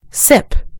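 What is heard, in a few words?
"sip", British English; gcompris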